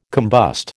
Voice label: "combust", American

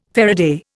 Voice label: "faraday", Indian

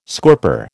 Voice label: "scorper", American

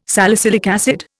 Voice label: "salicylic acid", Indian

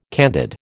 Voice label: "candid", American

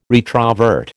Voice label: "retrovert", American

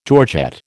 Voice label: "georgette", American

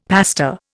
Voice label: "pasta", Indian; Dictionary

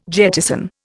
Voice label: "jettison", Indian

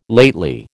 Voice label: "lately", American

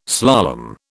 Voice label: "slalom", British

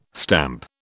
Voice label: "stamp", British

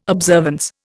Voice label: "observance", Indian

